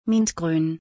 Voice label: Mint color in German